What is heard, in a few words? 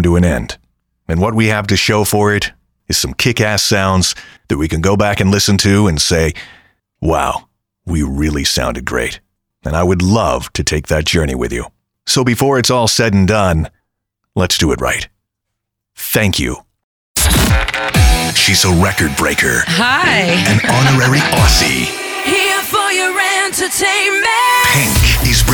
Radio Imaging, radio voiceovers; Benztown